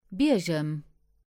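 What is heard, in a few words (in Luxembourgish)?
"Biergem" Luxembourgish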